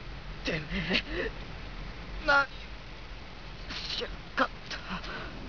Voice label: You little what did you do, japanese

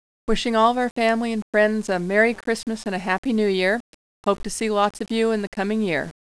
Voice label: Moms Greeting

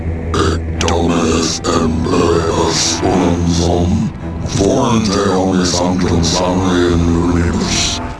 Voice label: speaks in latin; southpark

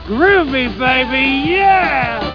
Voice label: aust groovy yeah

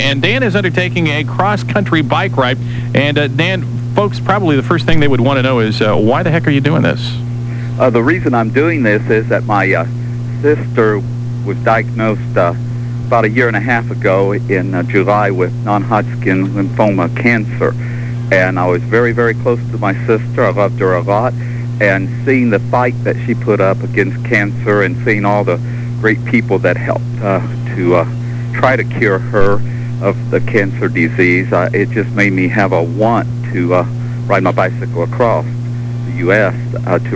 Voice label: Radio Interview

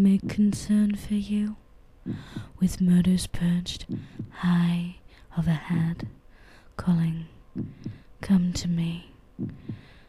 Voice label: spoken word the crow

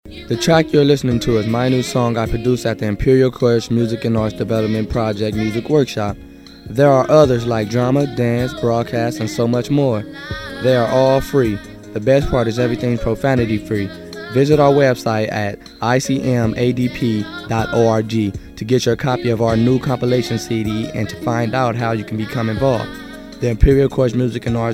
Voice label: radio promo

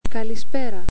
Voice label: "kalispera" Greek

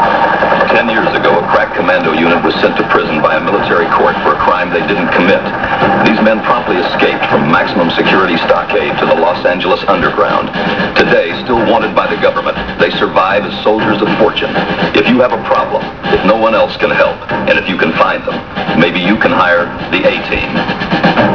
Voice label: narration

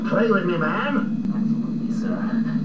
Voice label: evil

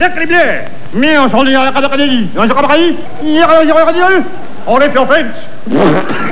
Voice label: french

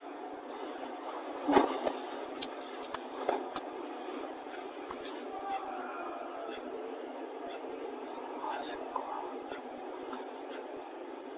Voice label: male whispering; why sit

